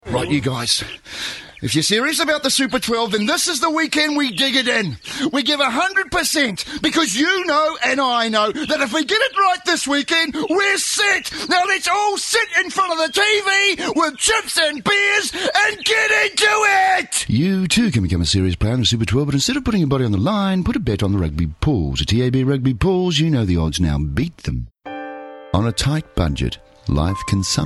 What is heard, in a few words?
Voice Actor; BigMouth Voices